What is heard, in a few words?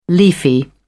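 "leafy", English, British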